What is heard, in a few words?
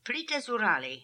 "Plitazoorali", pronounciations; Fourth Way; Beelzebub